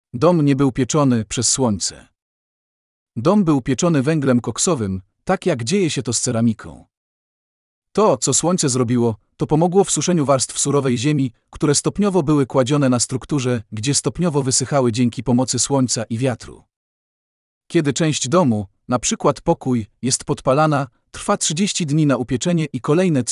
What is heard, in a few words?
Polish